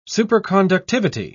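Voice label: "superconductivity", English, uk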